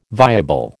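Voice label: "viable", American English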